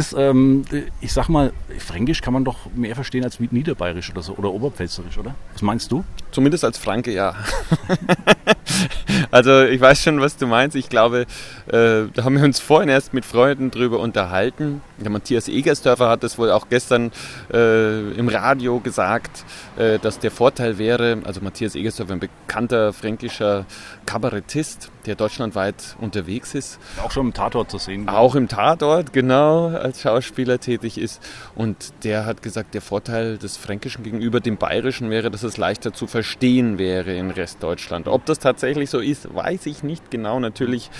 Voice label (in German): Hochdeutsch